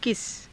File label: "kisa", Hindi